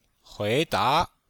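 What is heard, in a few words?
"hui2da2", Chinese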